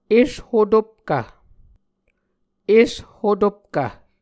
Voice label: "eshotopkv", Muscogee

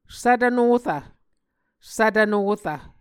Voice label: "svtv norv", Muscogee

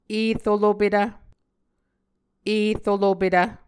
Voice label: "erolopetv", Muscogee

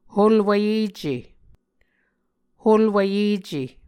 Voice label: "holwvyece", Muscogee

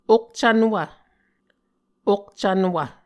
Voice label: "okcvnwv", Muscogee

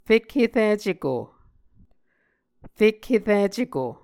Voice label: "fekhericeko", muscogee; Mvskoke Words with Voicing Consonants; A Dictionary of Creek/Muskogee; SIL International; Onvkv Ohhvyayices

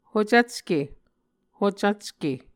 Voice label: "hocvcke", Muscogee; A Dictionary of Creek/Muskogee; SIL International; Onvkv Ohhvyayices

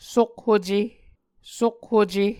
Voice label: "sokhuce", Muscogee

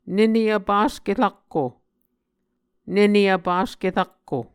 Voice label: "nene vpaske rakko", Muscogee

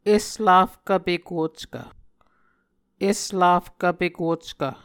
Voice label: "eslafkv pekockv", Muscogee; Mvskoke Words with Voicing Consonants; A Dictionary of Creek/Muskogee; SIL International; Onvkv Ohhvyayices

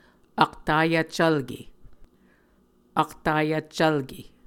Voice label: "aktvyahcvlke", muscogee

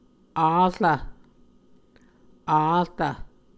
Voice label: "arv", muscogee

